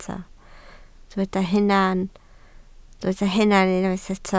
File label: "hunan", Welsh